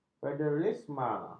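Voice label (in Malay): "federalisme", Malay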